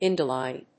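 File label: "INDOLINE", English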